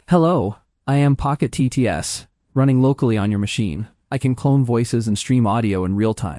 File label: generated speech